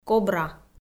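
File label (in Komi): "кобра", Komi, female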